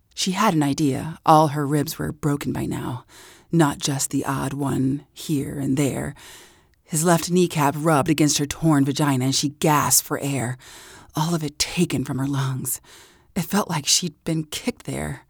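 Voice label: Revenge Suspense male female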